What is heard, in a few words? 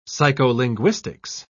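"psycholinguistics", British English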